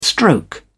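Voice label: "stroke", British English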